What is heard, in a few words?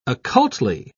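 "occultly", British English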